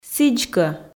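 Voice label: "сідзкӧ" Komi, female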